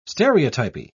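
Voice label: "stereotypy", British English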